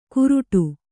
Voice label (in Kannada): "ಕುರುಡು", Kannada